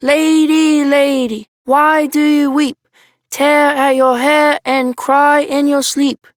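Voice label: Child Rhymes Sad Child Male; Duviri; Childrens Rhymes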